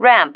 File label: "ramp", female